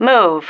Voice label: "move", female

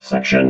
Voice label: "section", robot

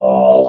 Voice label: "all", vox robot

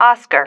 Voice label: "oscar", female

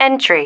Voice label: "entry", female

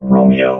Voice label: "romeo", robot vox

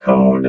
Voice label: "code", robot vox

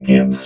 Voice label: "gibs", robot vox

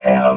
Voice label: "have", robot vox

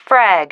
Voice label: "frag", female

